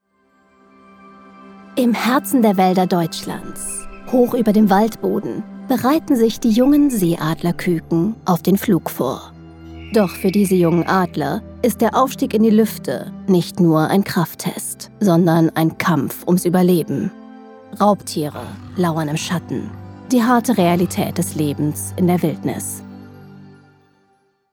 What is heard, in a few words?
Narration German DOCUMENTARY; voiceover